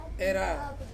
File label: "ra", to go, Ansus